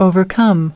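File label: "overcome", English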